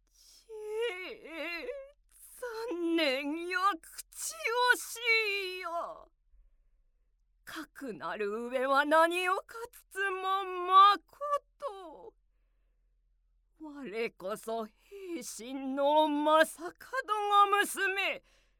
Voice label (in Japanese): 歌舞伎の台詞; 滝夜叉姫『忍夜恋曲者（しのびよるこいはくせもの）-将門』より